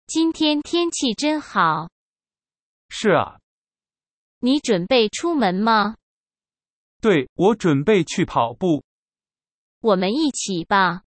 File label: conversation, Chinese Sentence Pronunciation